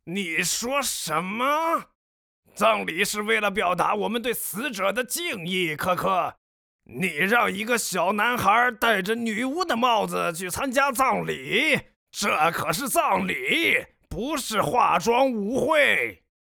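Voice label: chinese mandarin male character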